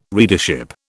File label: "readership", British